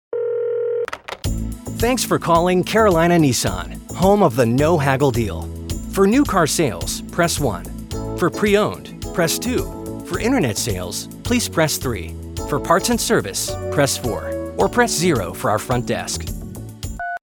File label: Directory On Hold